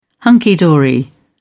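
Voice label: "hunky dory", English, British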